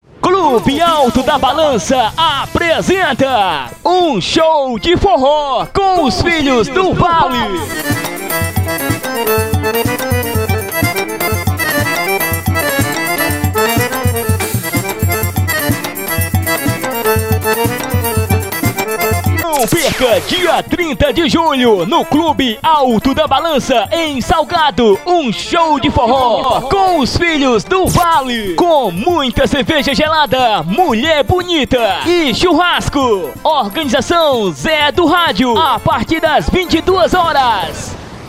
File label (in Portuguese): vinheta spot locutor